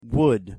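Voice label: pronunciation "would", English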